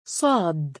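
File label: "Saad", Arabic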